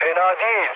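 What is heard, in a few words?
Turkish trick, mood low, speech; War Thunder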